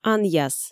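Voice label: "анъяс" Komi, female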